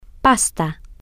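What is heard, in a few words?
pasta, Spanish, female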